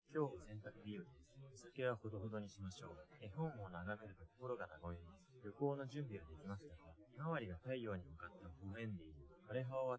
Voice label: male4 inst sim

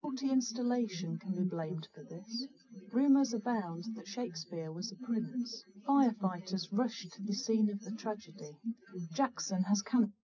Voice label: female srec 130ms 20cm sim

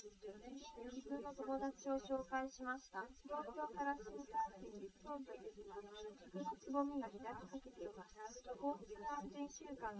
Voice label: female liverec; SiSEC11; underdetermined

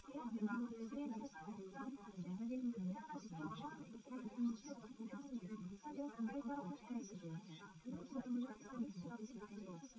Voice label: female liverec; SiSEC11; underdetermined

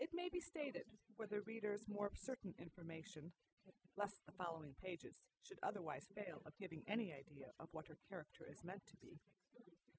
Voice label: female; SASSEC07

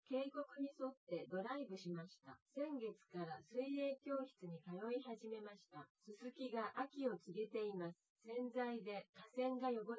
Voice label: test female synthconv 130ms 1m sim; SiSEC10; underdetermined

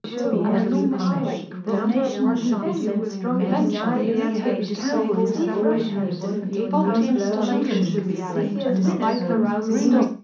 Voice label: female mix; SiSEC10; underdetermined

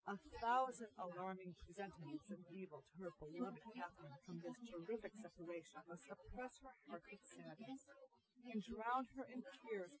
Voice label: test female inst sim

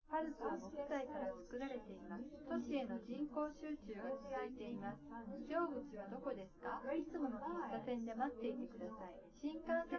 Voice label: female

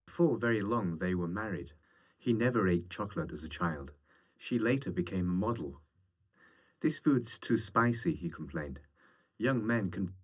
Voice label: male 130ms 50cm; SiSEC11; underdetermined

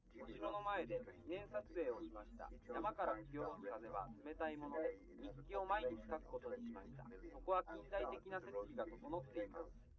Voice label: male liverec; SiSEC10; underdetermined

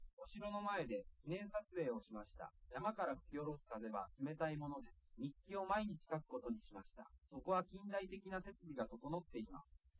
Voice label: male liverec

